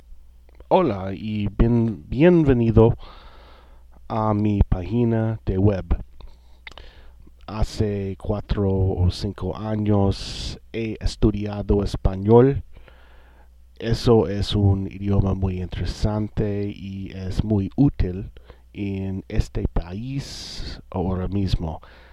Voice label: Spanish Sample